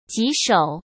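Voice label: "棘手" Mandarin Chinese; chinese flashcards; hsk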